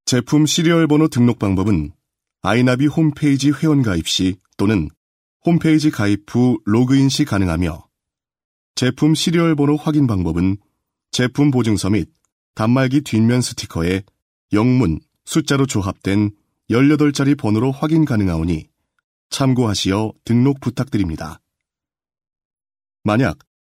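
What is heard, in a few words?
Korean male; ARS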